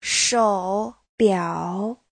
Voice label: "shou3biao3", Chinese